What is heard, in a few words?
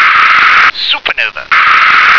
"supernova", English